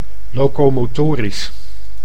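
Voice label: "locomotorisch", Dutch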